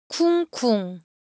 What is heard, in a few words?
Korean, Ideo Phones